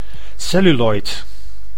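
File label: "celluloid", Dutch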